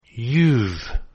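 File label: "you've", English contraction; Contractions Pronunciation Practice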